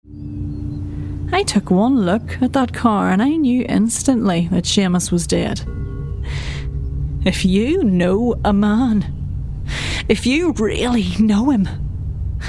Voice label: Drama

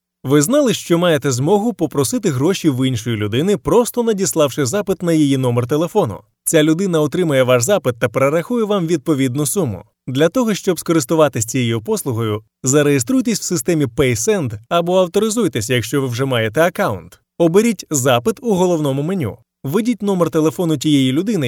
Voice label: UKR

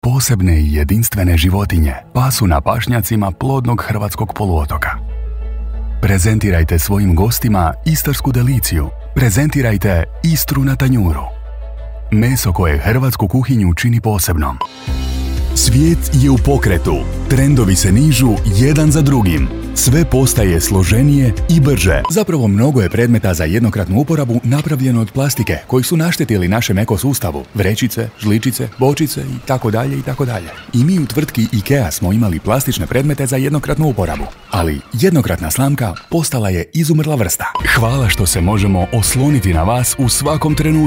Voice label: Commercial

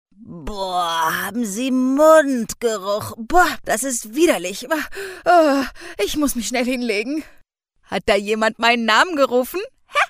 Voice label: talking animals

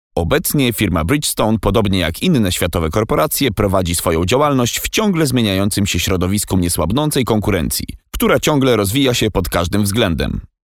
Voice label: e-learning; Bridgestone

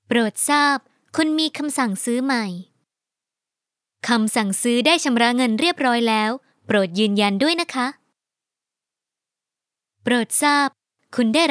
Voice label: announcement

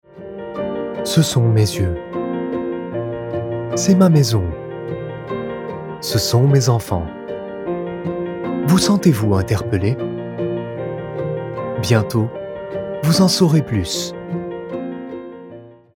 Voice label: Happy Emotional Film Promo

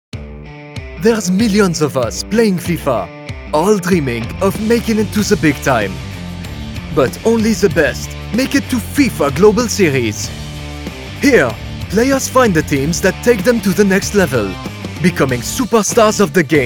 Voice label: TVC Announcer; EA Sports FIFA

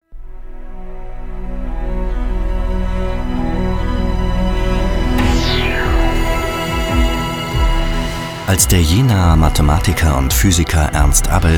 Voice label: Corporate

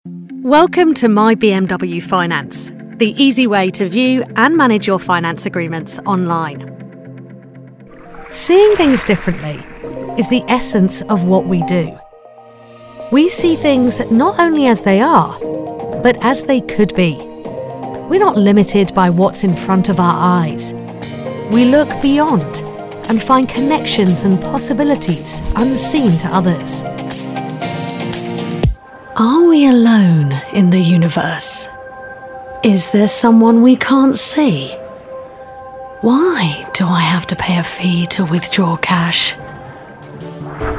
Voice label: Corporate

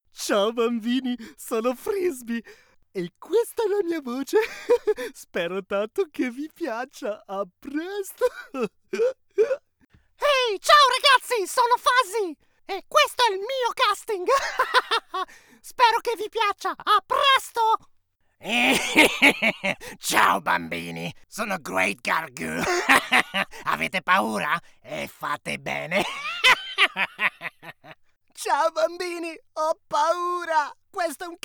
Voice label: cartoon italien

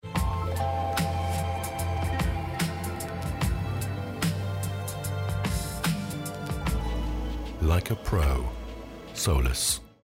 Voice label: VO TV audio; Solis

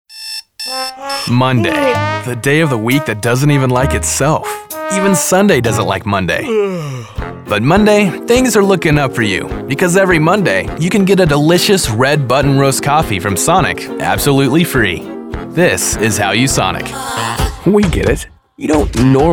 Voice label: Commercial

